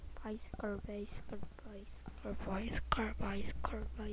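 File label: "bicycle", spoken word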